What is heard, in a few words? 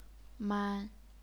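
"man", first tone, chinese pinyin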